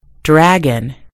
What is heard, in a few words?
"dragon", en US; gcompris